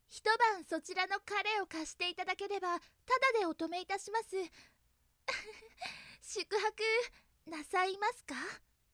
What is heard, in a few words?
genki, voice